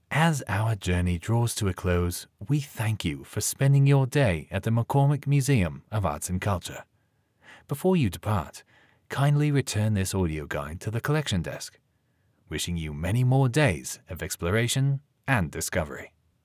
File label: Audio Guide British Farewell